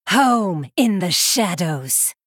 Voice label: Female Night Elf Play